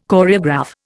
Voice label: "Choreograph", Indian

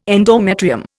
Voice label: "endometrium", Indian

